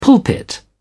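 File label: "pulpit", British English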